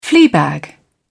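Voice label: "fleabag", British English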